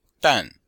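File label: "dan4", Mandarin Chinese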